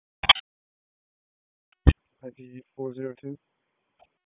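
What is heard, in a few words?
Raleigh Police Southeast District Dispatch, p25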